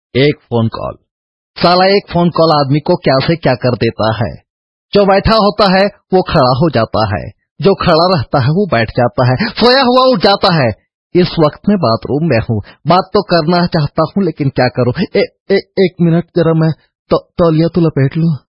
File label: Phone Call, Dialogue; Nana Patekar; Ekk Phone Call